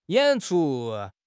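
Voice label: "yan3 chu1", Mandarin Chinese; HSK4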